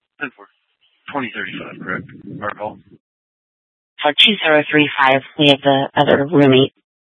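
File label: Police Department North Dispatch, scanner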